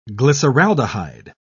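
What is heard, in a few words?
"glyceraldehyde", English, British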